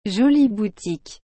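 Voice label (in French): "jolie boutique", French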